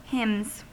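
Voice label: "hymns", US English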